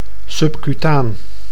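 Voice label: "subcutaan", Dutch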